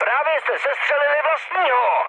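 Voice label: player killed ally, Czech, mood high; speech; War Thunder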